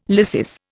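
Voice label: "lysis", Indian English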